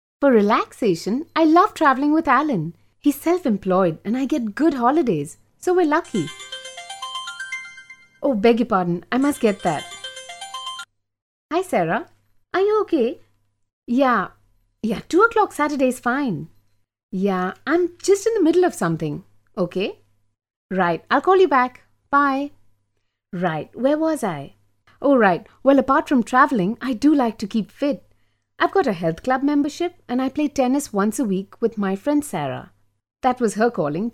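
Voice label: English Indian Female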